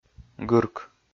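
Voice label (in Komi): "гырк", Komi, male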